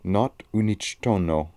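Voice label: "Noughtounichtono", pronunciations; Fourth Way; Beelzebub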